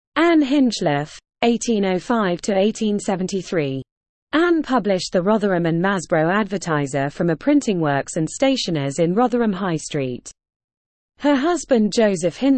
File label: Female